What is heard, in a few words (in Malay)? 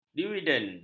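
"dividen", Malay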